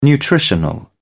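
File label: "nutritional", English, British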